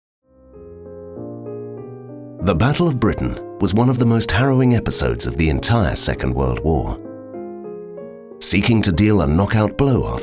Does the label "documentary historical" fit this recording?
Yes